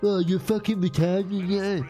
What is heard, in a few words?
arguing